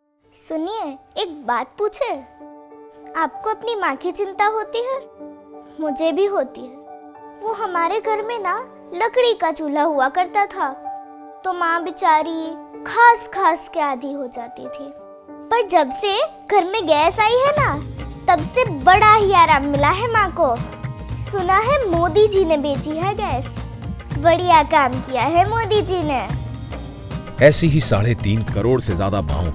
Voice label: Ujjwala Radio HINDI